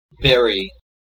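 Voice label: "berry", American English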